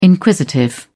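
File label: "inquisitive", British English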